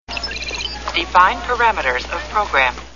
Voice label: define parameters of program, computer voice